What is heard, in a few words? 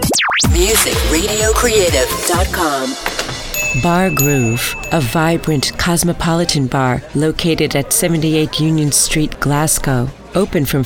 Radio